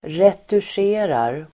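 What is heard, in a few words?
"retuscherar", Swedish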